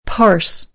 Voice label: "parse", American English

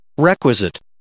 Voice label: "requisite", English

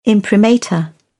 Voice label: "imprimatur", British; English

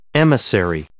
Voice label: "emissary", English

